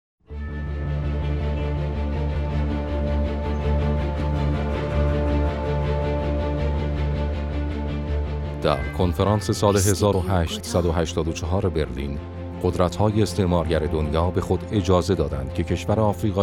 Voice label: narration